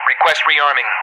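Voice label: "request rearming", English; Messages; DCS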